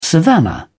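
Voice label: "savannah", English, British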